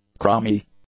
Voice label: "crummy", English; adjectives